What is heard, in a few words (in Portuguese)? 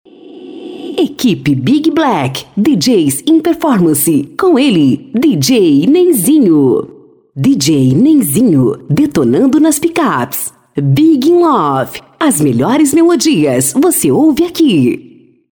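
Vinhetas sem efeitos de fundo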